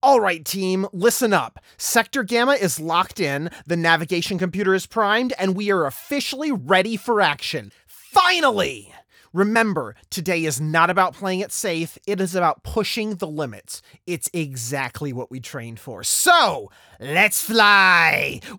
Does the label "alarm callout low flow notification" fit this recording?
No